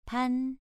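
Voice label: "pan", tone 2, pronunciation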